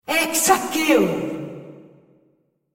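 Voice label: Brazilian Portuguese female; hexakill league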